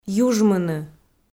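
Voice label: "южмыны", Komi, female; kpv audio dict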